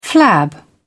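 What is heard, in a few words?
"flab", British English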